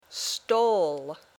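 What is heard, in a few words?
"stole", English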